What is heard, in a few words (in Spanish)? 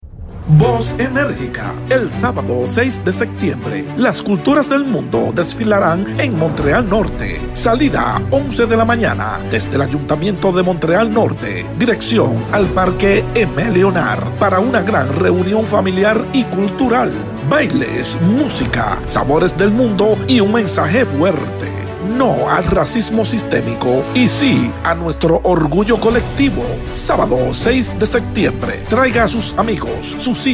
Voz Energica PUB; Émission spéciale en espagnol